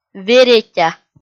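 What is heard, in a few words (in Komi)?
"веретя", Komi, female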